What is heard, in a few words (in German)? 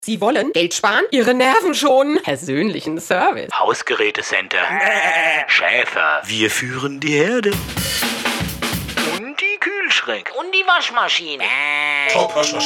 Spot